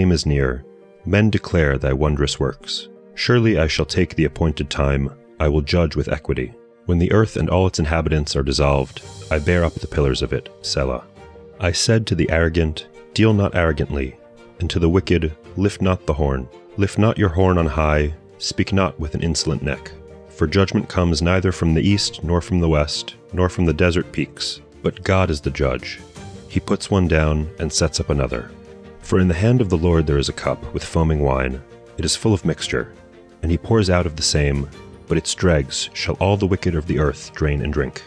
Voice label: poetry and prayer psalm english; Meir Soloveichik